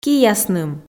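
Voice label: "киясным", Komi, female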